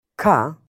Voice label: "Kuh", Hebrew; hebrew introduction